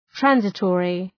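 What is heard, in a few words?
"transitory", English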